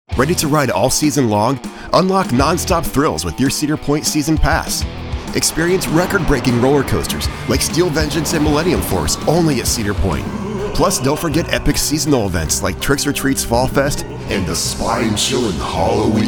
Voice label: Commercial, Fun; Television Spots; Cedar Point Commercial